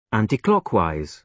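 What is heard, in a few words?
"anticlockwise", British English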